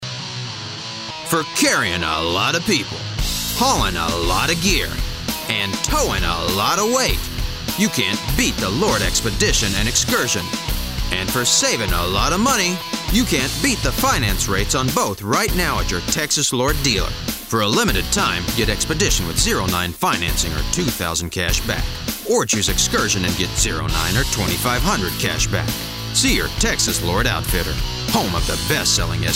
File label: Hi Energy Truckin, Voice Demos